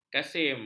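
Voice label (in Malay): "kasim", Malay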